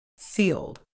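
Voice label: "sealed", British English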